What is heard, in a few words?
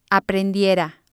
"aprendiera", spanish